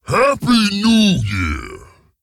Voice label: Ogre Emote HAPPY NEW YEAR; Boulderfist